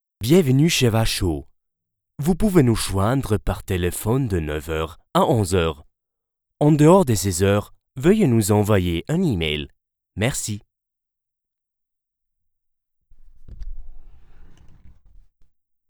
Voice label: FR NOISEGATE